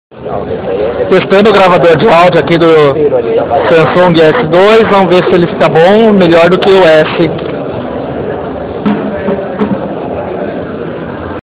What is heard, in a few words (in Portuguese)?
Gravador Voz Samsung S